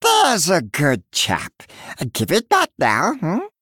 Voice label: Male Murloc